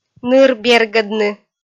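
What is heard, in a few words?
"ныр бергӧдны", Komi, female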